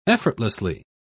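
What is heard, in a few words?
"effortlessly", British English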